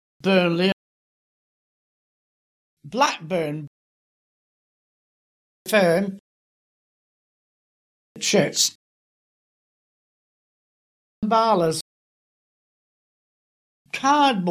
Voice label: Bolton town, part rhotic, rhotic after the NURSE vowel; birth 1909; rhoticity; accents